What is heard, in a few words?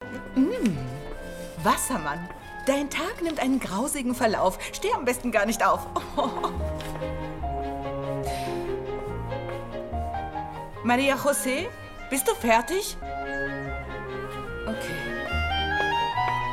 dubbing, German, female